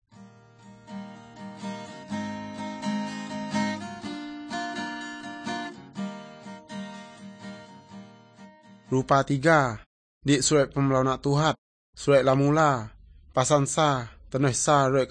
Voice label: good news, urak lawoi